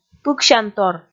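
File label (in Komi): "пыксянтор", Komi, female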